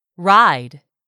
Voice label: "ride", English; 3000 words

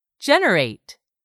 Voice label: "GENERATE", english; 3000 words

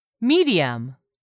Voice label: "medium", English; 3000 words

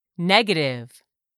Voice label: "NEGATIVE", English; 3000 words

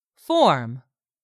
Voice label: "form", English; 3000 words

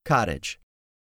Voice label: "COTTAGE", english; 3000 words

